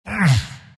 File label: grunts; dota; elder titan